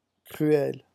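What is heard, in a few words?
"cruel", French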